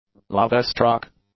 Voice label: "lovestruck", English; adjectives

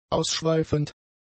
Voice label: "ausschweifend", German; adjectives

